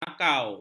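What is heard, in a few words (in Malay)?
"makaw", Malay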